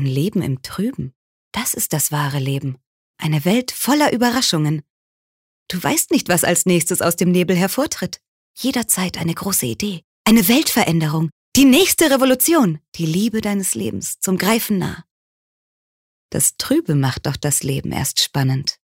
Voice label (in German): Klarheit Dynamisch